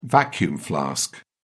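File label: "vacuum flask", British English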